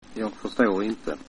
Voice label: understand, swedish